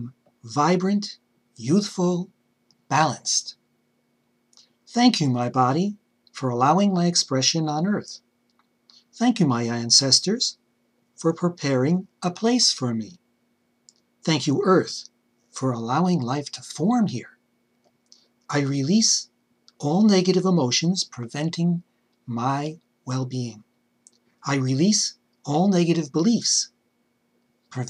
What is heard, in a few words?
Healing Energy Meditation